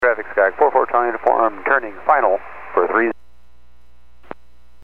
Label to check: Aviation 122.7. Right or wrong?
Right